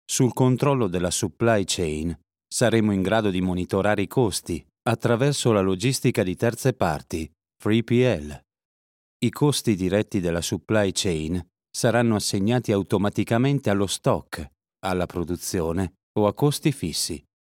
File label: E-learning Supply Chain